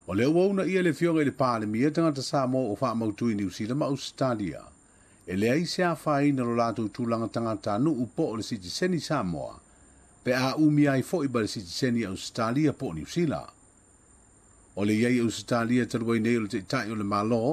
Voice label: samoan; SBS Samoan; Fautua le palemia o Samoa e talia sitiseni Ausetalia ma Niu Sila.

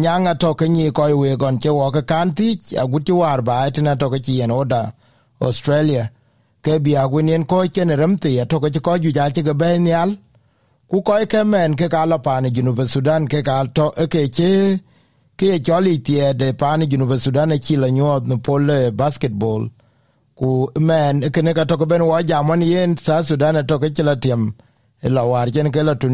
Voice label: dinka; The South Sudanese basketball team's victory against Egypt was a huge upset and made the country proud.; SBS Dinka

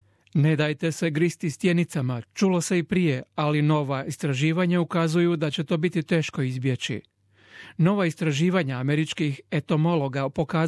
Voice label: croatian; study shows bed bugs becoming insecticide resistant

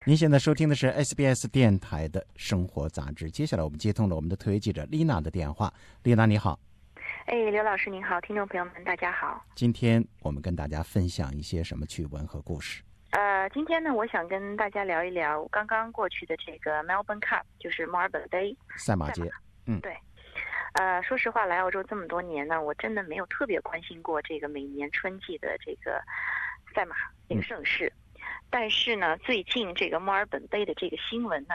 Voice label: mandarin; SBS 普通话电台; 生活杂志：赛马死亡引发的思考

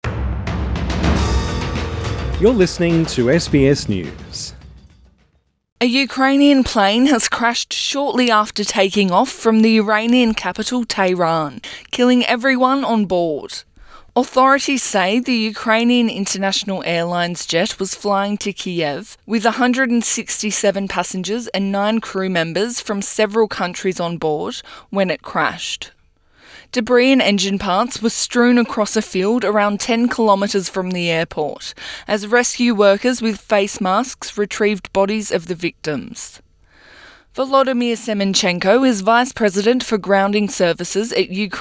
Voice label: english; SBS News; Iran investigates fatal crash of Ukraine airliner near Tehran airport

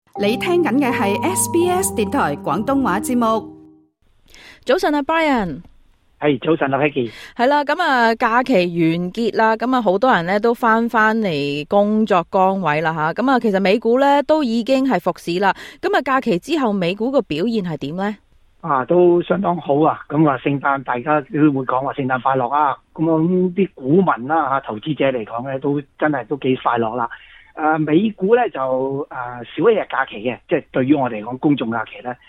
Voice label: cantonese; SBS Chinese; 【跟2023說再見】美股澳股齊挑戰歷史新高 一個板塊領航大市; 《寰宇金融》

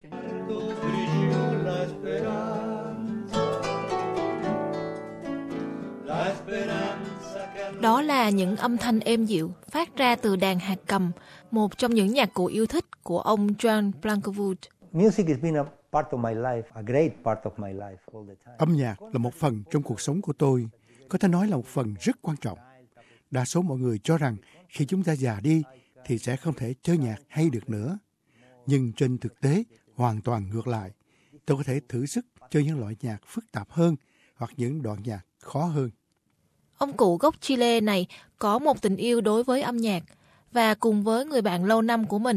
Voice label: vietnamese; study finds making music improves ageing brains and fingers

